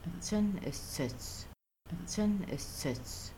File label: I am eating meat, Kaska; Making Bannock